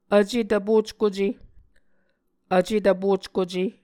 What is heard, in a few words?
"vce tvpockuce", Muscogee; Mvskoke Words with Voicing Consonants; A Dictionary of Creek/Muskogee; SIL International